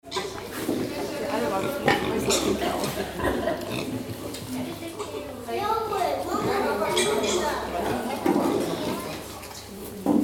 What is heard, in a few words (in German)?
Zoo Schweinestall Besucher Reden